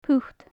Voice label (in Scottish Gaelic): "put", Gaelic; Faclan Nàdair